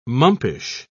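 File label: "mumpish", British English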